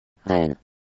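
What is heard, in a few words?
"Rennes", French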